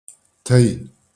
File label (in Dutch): "Téi", Luxemburgs; thee-in-andere-talen